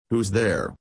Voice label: Normal Voice; Talking Darth Vader Helmet